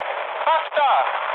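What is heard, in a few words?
German near waypoint mood med, speech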